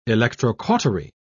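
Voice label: "electrocautery", British English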